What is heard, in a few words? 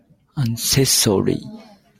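"accessory", Japanese, male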